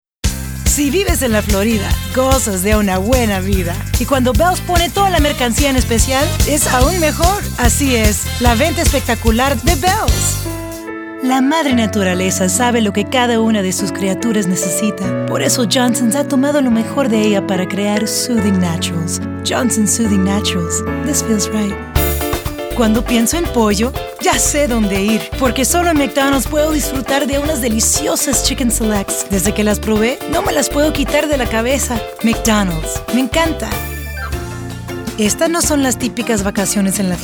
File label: Spanish, females